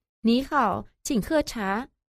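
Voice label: "nihao, qing he cha", Mandarin Chinese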